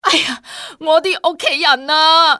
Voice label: my family, male; XBOX; civ